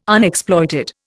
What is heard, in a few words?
"Unexploited", Indian